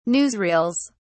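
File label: "newsreels", British English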